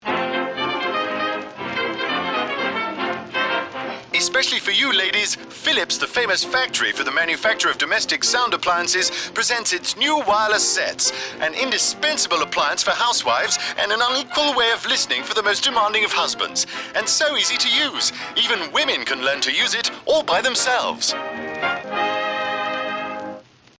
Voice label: 1940s style; Philips